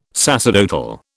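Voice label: "sacerdotal", British English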